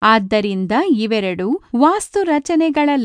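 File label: kannada female prompt; indictts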